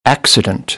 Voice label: "accident", American; English; ə - ɪ